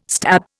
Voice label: "stab", Indian